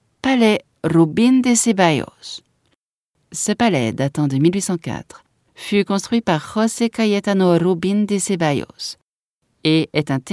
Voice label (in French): audioguia, frances; audioguia baeza